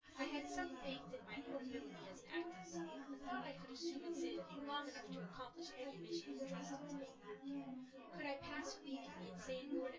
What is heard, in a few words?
female synthconv